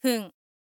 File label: info lady "fun"